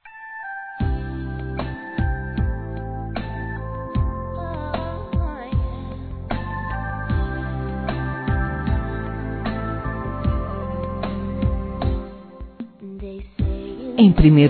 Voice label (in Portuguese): Ficante F; Aniversários; ANIVERSARIO romantico; fem